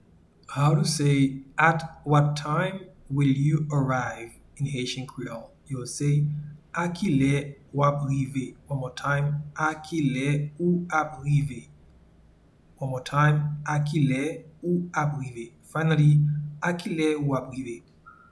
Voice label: At what time will you arrive in Haitian Creole A kile ou ap rive